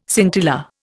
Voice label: "scintilla", Indian